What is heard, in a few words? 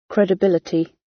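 "credibility" British; Business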